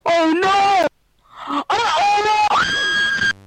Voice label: player panics; petscop

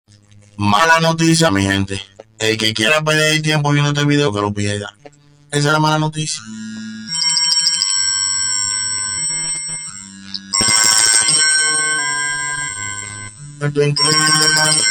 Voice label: robotic

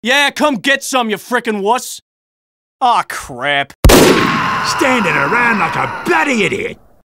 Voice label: taunting

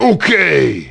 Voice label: okay loud

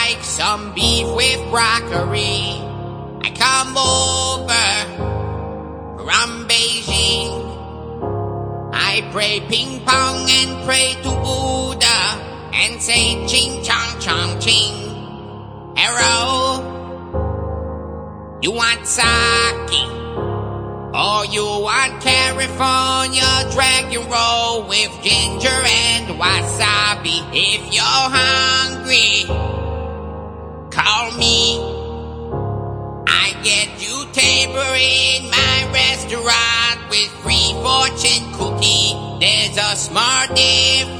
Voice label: hello chinese; echo

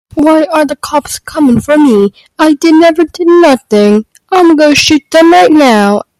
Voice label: british men

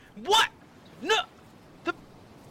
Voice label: surprised

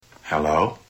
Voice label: hello man voice

